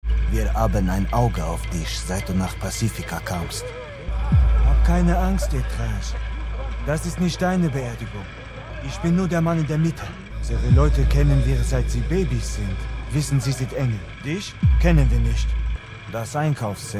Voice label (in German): Franz Kreol; Cyberpunk 2077; Voodoo Boy; Akzent; Französisch-Kreolisch